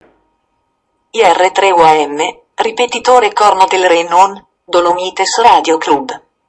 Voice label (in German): Kennung italienisch; Rittnerhorn